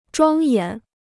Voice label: "庄严", Chinese